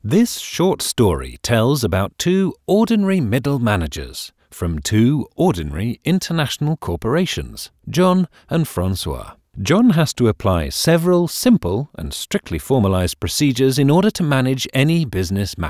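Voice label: English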